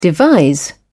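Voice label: "devise", British English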